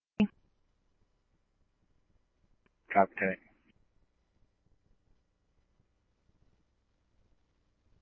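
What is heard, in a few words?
scanner, Phoenix Police Department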